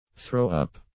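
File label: "throw up", English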